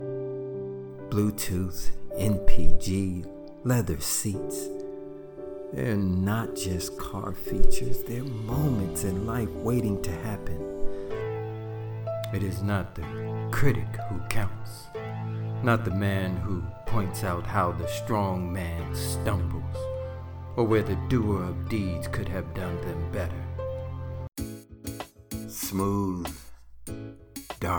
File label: Commercial